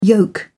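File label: "yolk", British English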